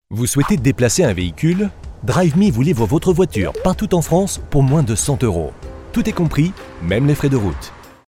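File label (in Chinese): 外籍法语男酒馆宣传; Driiveme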